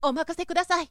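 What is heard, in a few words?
"omakasekudasai", boy; shonen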